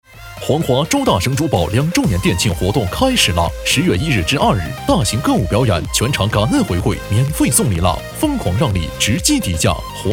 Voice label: 男, 促销; 周大生珠宝

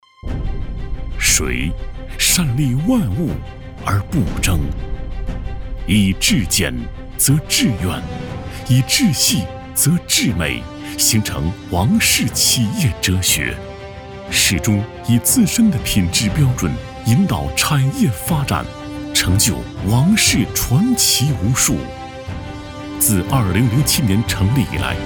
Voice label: 男 专题 激情大气; 王氏

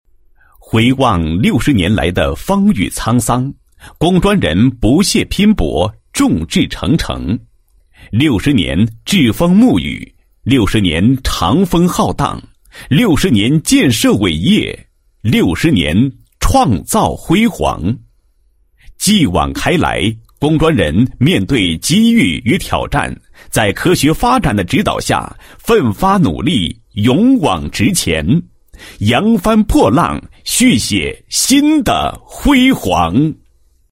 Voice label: 企业专题, 国语男声配音; 高音经济型; 回望六十年沧桑

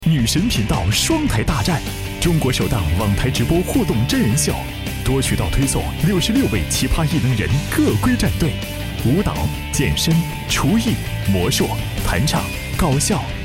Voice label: 男 时尚活力 栏目

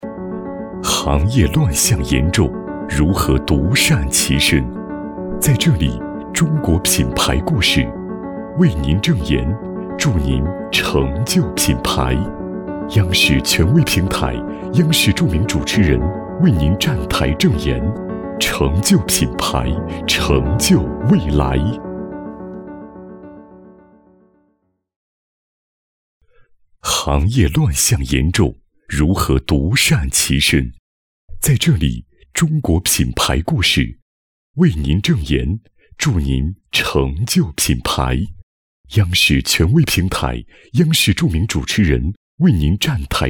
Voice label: 男 中国品牌故事

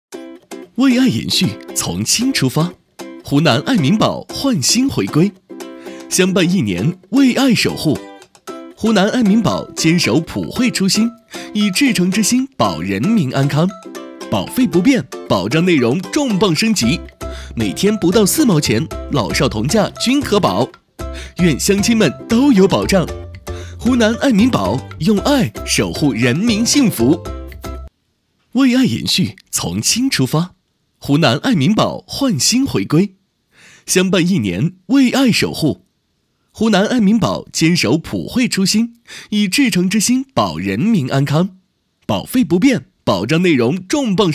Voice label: 男 中文 年轻 MG动画

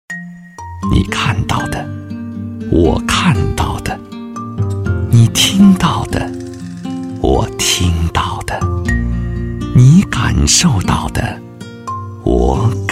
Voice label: 男, 专题; 中国漠河

